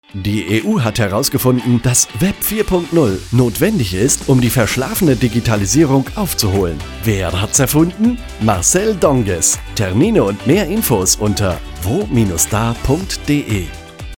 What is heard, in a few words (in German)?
Funkspot; Cerulean Circle GmbH; Antenne Bayern